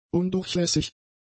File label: "undurchlässig", German; adjectives